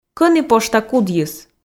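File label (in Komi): кӧні пошта кудйыс, Komi, female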